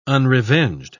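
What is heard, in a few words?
"unrevenged", English, British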